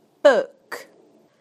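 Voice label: "book", British English; PictureDictionary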